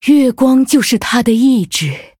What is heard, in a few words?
Female Night Elf Mirror Start; zh